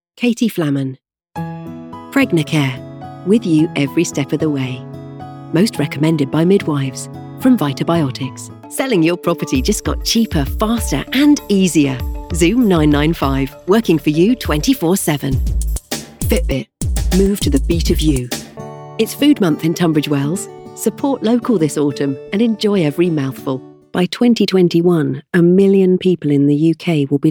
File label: COMMERCIAL VOICE